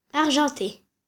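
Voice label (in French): "argente", French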